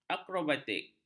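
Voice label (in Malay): "akrobatik", Malay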